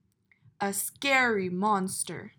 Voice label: a scary monster